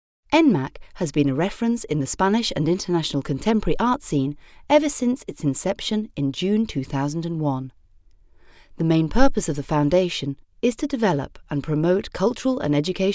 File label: AUDIO GUIDE, Voice Over